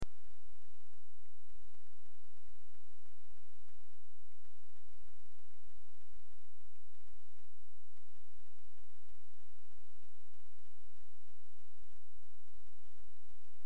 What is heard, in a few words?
Sunday Sermon